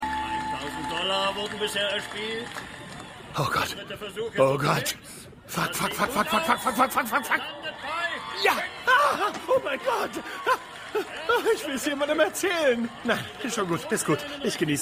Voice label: Moderator; Planko

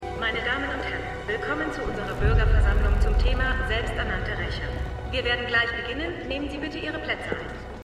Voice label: Ansagerin; Stimmproben; Arrow

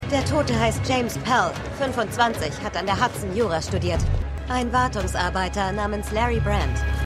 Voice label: Polizistin

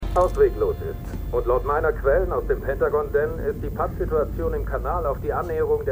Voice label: Reporter; For All Mankind

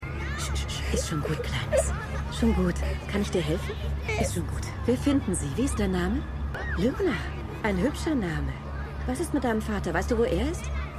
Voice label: Frau; New Amsterdam

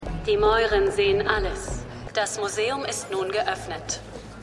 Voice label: Durchsage, Stimmproben

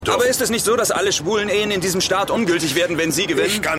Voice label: Reporter, Stimmproben

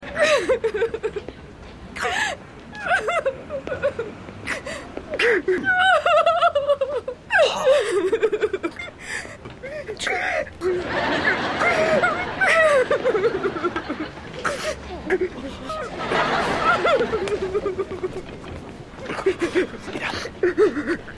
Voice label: Weinende Frau, Stimmproben; Seinfeld

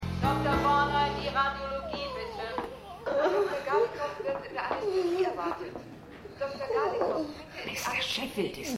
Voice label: Durchsage; Stimmproben; Die Nanny

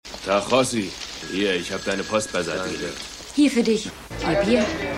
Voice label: Telefonistin Kellnerin; Ivan

